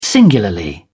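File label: "singularly", British English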